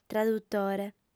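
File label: "tradutore", female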